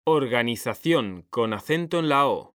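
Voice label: "organización", Spanish, male; mekanta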